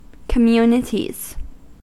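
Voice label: "communities", US English; pronunciations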